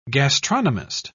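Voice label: "gastronomist", British English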